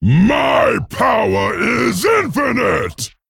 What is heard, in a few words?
Male Dragon Attack